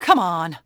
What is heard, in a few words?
ENG; Dialogue; Cars; XBOX